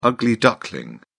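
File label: "ugly duckling", British English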